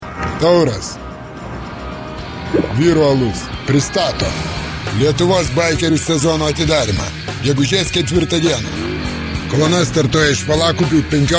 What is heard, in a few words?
radio ad; Bikers